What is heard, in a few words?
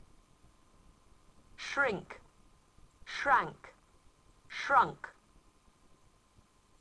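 "shrink", English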